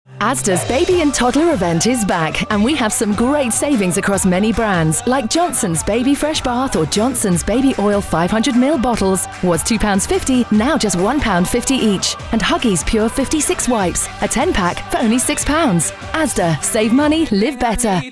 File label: Radio Baby Creative; ASDA